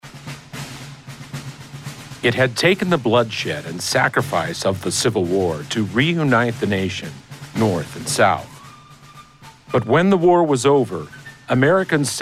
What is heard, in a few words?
Narration